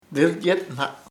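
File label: day before yesterday anteayer, Chamacoco word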